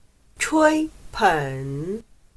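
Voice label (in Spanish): "吹捧", chino mandarin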